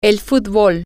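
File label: soccer, Spanish, female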